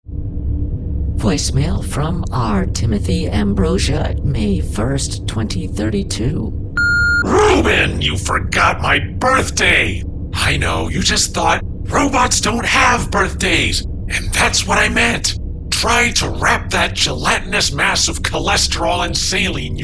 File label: voicemail